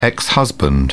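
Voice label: "ex-husband", British English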